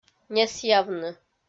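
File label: "несъявны", Komi-Zyrian, female; kpv audio dict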